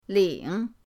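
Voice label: "ling", Mandarin pinyin, third tone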